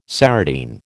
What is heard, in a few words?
"serotine", American